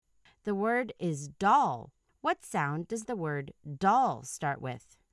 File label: doll initial sound